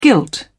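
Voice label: "gilt", British English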